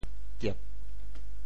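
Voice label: "kiep4", Teochew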